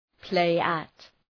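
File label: "play at", English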